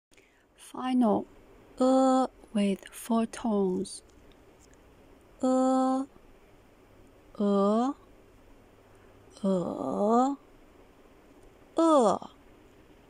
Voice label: "e" with 4 tones, Mandarin